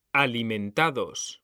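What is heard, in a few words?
"alimentados", Spanish, male; mekanta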